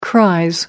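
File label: "cries", British English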